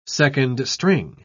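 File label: "second-string", British English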